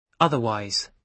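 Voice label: "otherwise", British English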